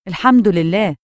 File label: "al-Hamdu lilleh", Egyptian Arabic